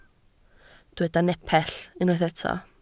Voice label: "nepell", Welsh